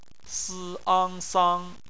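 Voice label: "sang" bopomofo, Chinese; phonetics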